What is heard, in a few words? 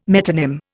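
"metonym", English, Indian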